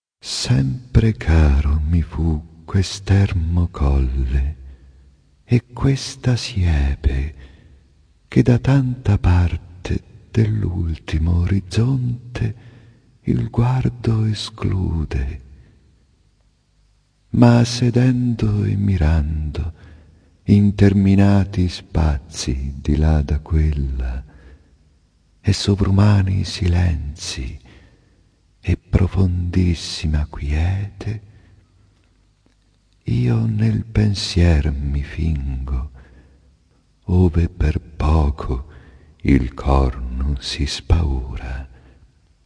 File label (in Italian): Audiobook Ita Poesia, L'infinito; Giacomo Leopardi; Voce Carmelo Bene